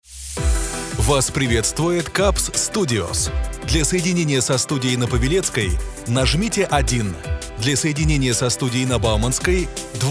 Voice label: IVR